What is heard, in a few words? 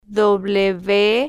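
"w", spanish, female, slow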